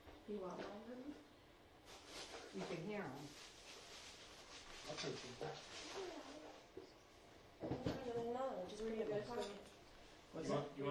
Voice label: childs voice